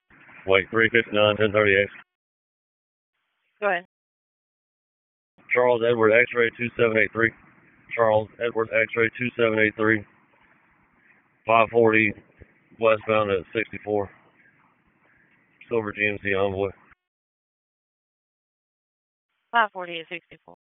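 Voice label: Wake Sheriff's Office Dispatch, p25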